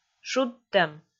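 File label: "шудтӧм", Komi, female; kpv audio dict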